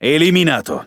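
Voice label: Speech Pilots Torpedo Destroy, Italian; World Of Warships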